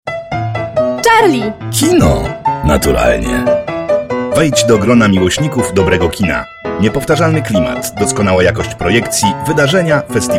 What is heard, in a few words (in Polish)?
reklama; charlie wawa 2012